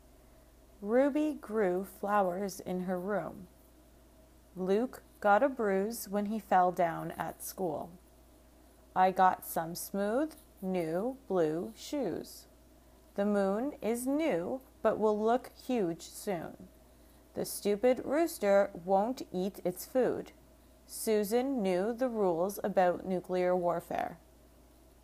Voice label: Uw Sentences Fast